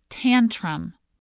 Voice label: "tantrum", English